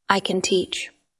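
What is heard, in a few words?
Voice Acting es Female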